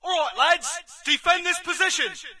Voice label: brit defend area; player; Source Engine; dod

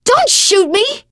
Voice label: friendly fire, teen girl voice; source engine; left 4 dead 2; survivor

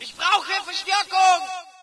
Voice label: ger backup; player; Source Engine; dod

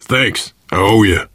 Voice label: thanks, player survivor voice biker

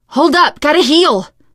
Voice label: cover me, teengirl; source engine; left 4 dead 2; survivor

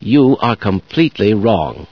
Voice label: completely wrong, scientist; source engine; hl1; video games

